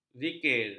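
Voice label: "zikir", Malay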